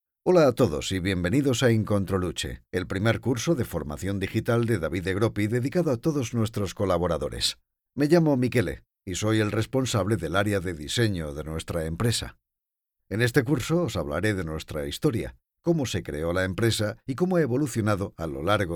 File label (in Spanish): spanish spain male generic